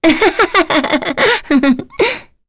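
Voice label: Vox Happy, English; Rodina; kings raid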